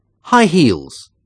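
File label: "high heels", English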